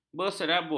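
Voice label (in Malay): "berserabut", Malay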